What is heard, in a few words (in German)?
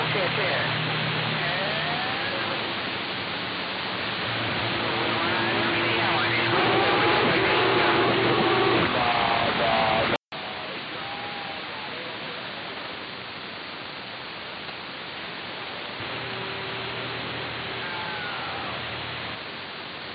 CB Funk USA AM; 27025khz